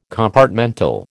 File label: "compartmental", American